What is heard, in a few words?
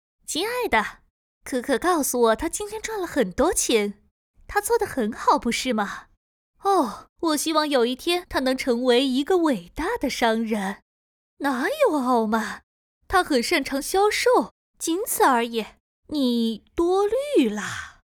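chinese mandarin female character; voice demos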